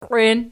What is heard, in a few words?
"qiwein", Archi